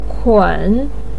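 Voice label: "kun" third tone, chinese, voice pinyin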